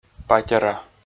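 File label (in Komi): "патера", Komi, male; audio dict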